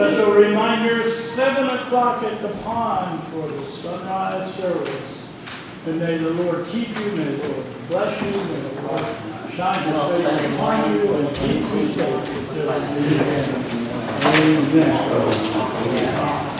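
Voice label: A Reminder and blessing, church service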